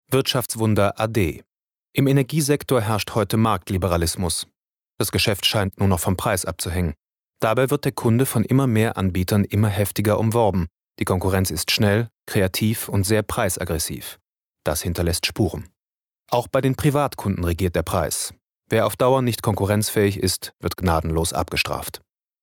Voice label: nachrichten

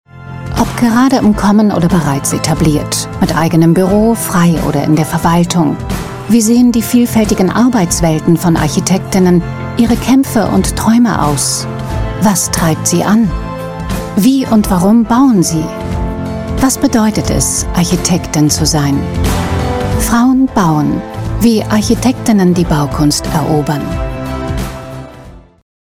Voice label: Kommentar; Frauen bauen

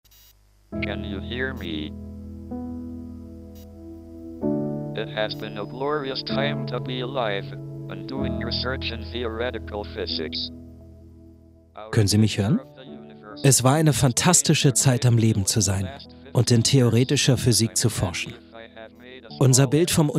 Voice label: voice over letzte botschaft; stephen hawkings

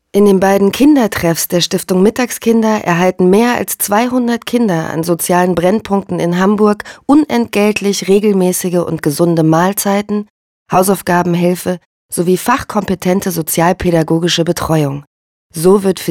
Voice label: Reportage Sachlich, News (Nachrichten), German; Stiftung Mittagskinder

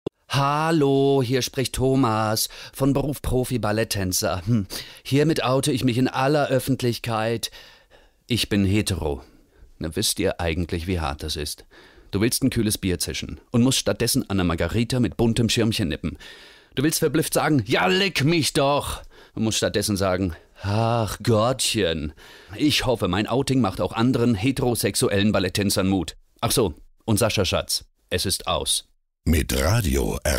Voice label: spot funk und internet radiozentrale image; grabarz partner werbeagentur gmbh; studio funk gmbh

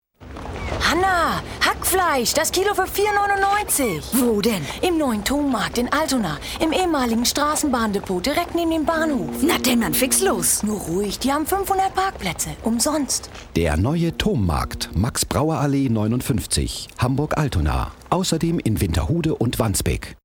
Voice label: szene dialekt

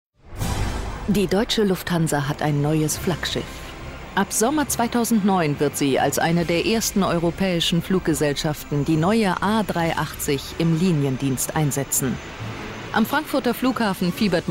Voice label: kommentar

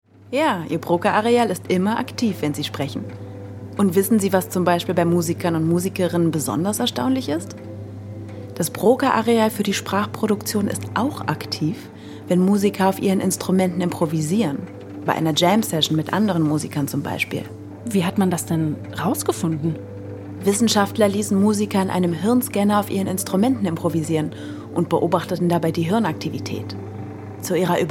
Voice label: Erklaertext Hoerspiel; Die unsichtbatre Dirigentin

